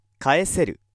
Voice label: male